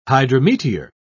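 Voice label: "hydrometeor", British English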